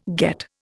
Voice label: "get", Indian